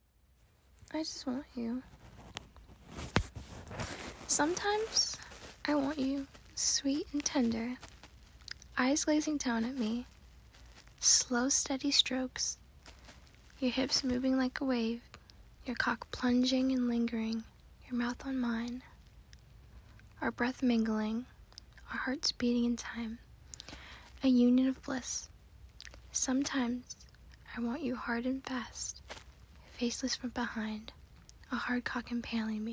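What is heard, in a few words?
Tease, sensual, female; I Just Want You; F4M; Hard; Fast; GF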